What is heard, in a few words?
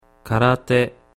"karate", Japanese